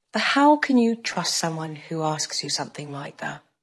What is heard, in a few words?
Voice Acting es F